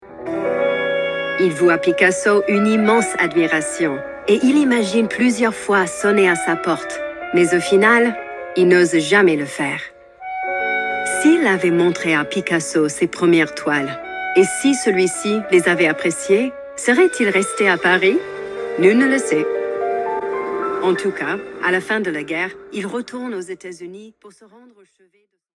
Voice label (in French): voix narration accent ANG; France 5